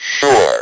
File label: speak and spell "SURE"; WORDS